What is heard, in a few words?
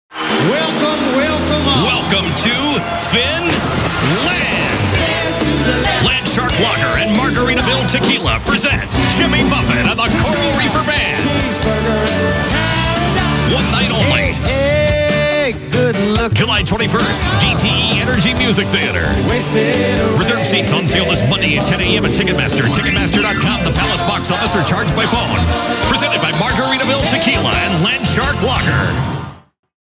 ad; womc